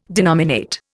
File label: "denominate", Indian